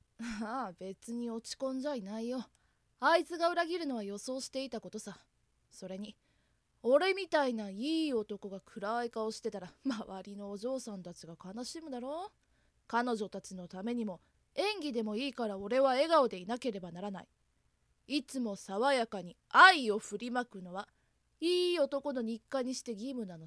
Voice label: genki boy, voice